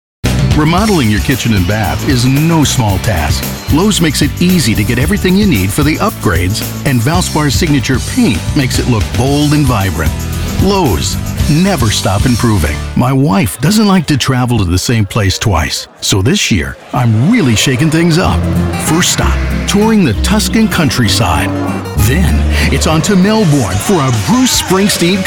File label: Commercial